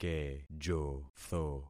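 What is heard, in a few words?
"kejotho", concatenated syllables; Affixing experiment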